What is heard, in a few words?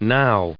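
"now", us English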